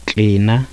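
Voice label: voiceless velar fricative nasal, zulu laterals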